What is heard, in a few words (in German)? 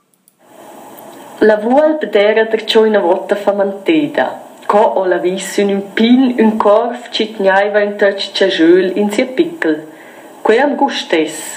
Beispiel Puter, Rätoromanisch; Grisun; Soundproben